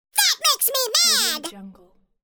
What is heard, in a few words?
cartoon voice that makes me mad